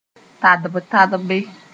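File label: "tadb-tadbe", Mooré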